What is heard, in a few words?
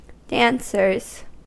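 "dancers", US English; pronunciations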